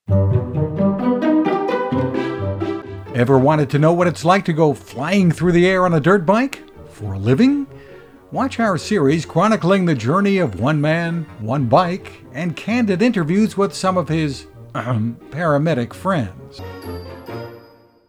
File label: Fun